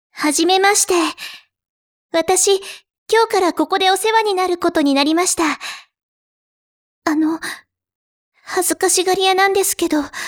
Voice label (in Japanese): hazukasigari